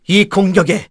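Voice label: Vox Skill, Korean; Kasel; kingsraid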